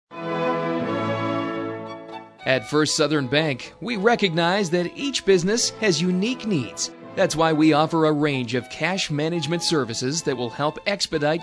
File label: On Hold, messages on hold; bank law; First Southern Bank